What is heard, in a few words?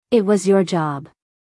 "It was your job" us female; everyone-can-use-english